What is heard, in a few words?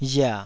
"ria3", taiwanese